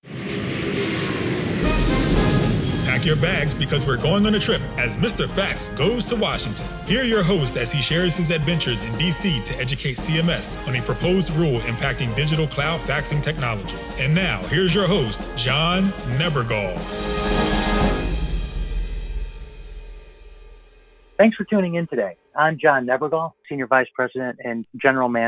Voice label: intro With Music